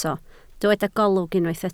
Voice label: "golwg", Welsh

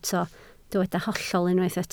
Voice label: "hollol", Welsh

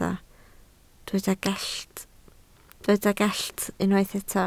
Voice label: "gallt", Welsh

